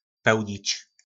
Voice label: "Pełnič", nářečí Po našimu; Plnit

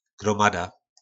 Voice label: "Grómada", nářečí Po našimu; Hromada

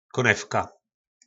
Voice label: "Kónevka", nářečí Po našimu

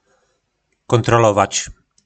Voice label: "Kóntrolovač", Slovník nářečí; Kontrolovat

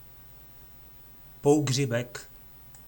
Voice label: "Półgřibek", nářečí Po našimu; Hřib hnědý

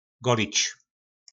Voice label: "Golič", nářečí Po našimu; Holit

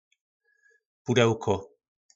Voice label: "Pudełko", nářečí Po našimu; Krabička

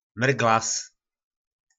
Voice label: "Myrglas", nářečí Po našimu; Vlasec

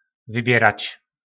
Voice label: "Vybjyrač", nářečí Po našimu; Vybírat

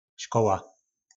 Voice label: "Škoła", nářečí Po našimu; Škola

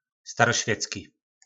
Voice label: "Starošvěcki", po našimu; Zastaralý

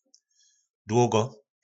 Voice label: "Dłógo", nářečí po našimu; Dlouho

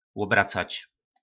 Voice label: "Łobracač", nářečí Po našimu; Obracet

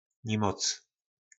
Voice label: "Nimoc", nářečí Po našimu; Nemoc

